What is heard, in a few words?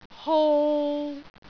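"hou3", Cantonese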